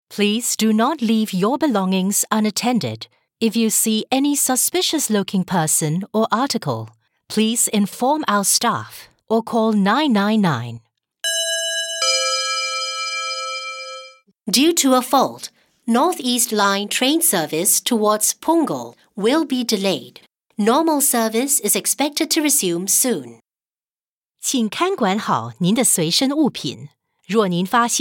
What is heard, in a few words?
singapore voice system announcements